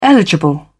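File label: "eligible", British English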